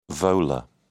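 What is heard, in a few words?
"volar", British English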